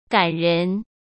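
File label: "感人", Mandarin Chinese; hsk; chinese-flashcards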